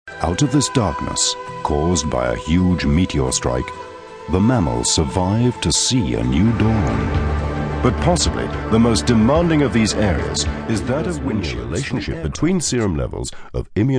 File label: Narrative